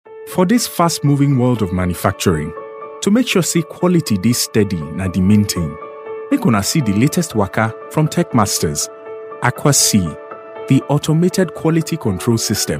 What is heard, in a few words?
nigerian voice nigerian pidgin english